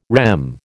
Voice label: "REM", American

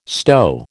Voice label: "stow", American

